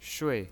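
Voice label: "shui", Mandarin Chinese pinyin, fourth tone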